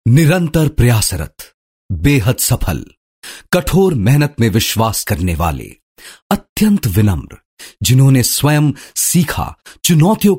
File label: hindi, male voice